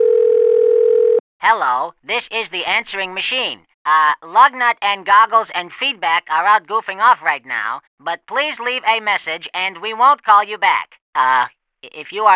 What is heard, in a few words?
toon answering machine